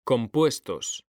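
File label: "COMPUESTOS", Spanish; mekanta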